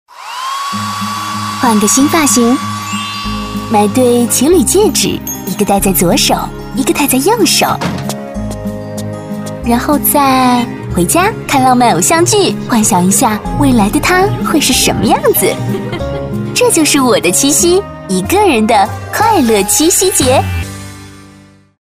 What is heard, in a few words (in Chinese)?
女 广告配音 综艺 活泼青春; 腾讯综艺